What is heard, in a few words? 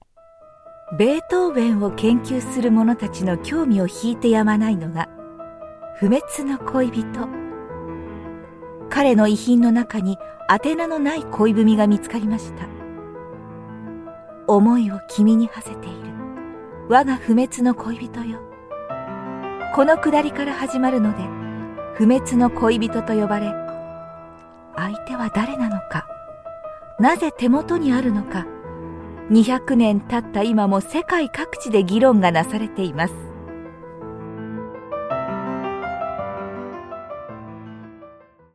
japanese narration